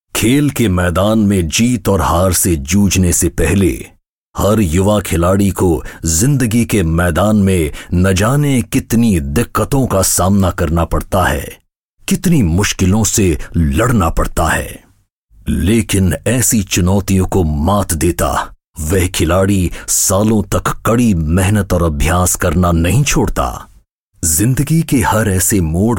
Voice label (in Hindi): AV Hindi Voice Over; Khelo India